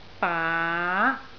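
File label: "baa2", Cantonese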